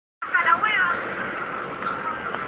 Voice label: nota de voz

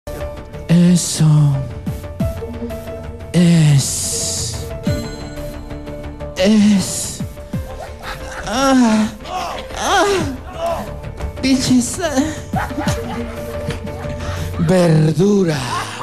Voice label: voz en off aguantada; el especial del humor

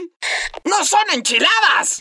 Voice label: voz de corki latinoamerica español; league of legends

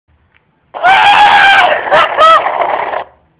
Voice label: nota de voz

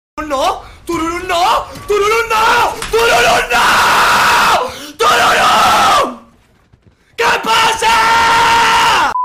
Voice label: enfado

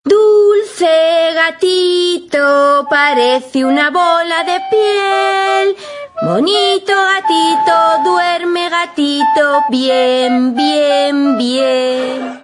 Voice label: dulce gatito le canta; penny; sheldon cooper

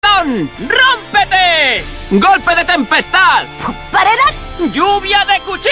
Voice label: la voz de, español; elsword

